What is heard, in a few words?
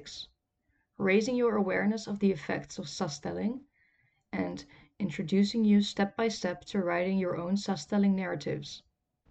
SLIDE INTRODUCTION ENG, e-learning